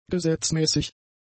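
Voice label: "gesetzmäßig", German; adjectives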